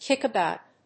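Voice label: "kick about", English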